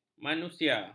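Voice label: "manusia", Malay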